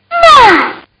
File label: Kid Says My; Talking; Voice